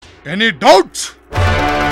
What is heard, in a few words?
Telugu; Jr Ntr